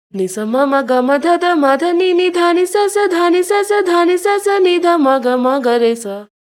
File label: pitch shift voice; prosoniq time factory clear scale